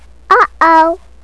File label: uh oh